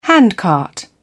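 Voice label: "handcart", British English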